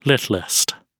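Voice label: "littlest", British English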